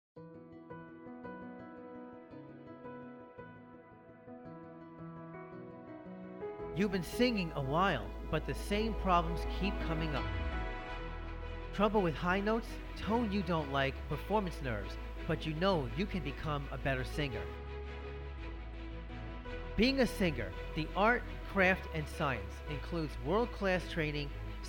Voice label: commercial